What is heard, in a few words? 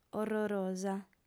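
"orrorosa", female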